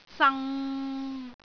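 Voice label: "sang3", Cantonese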